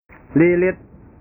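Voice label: "lilit", Indonesian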